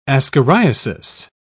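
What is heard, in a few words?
"ascariasis", British English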